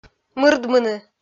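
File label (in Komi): "мырдмыны", Komi, female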